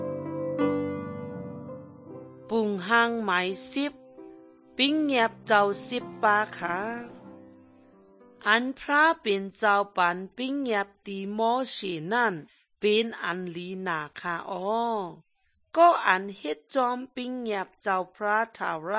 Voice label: good news shan eastern, drama